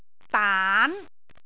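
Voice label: "daan2", Cantonese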